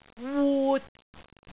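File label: "wut6", Cantonese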